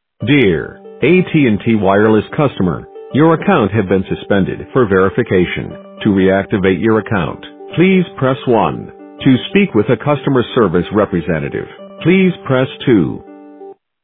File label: Voicemail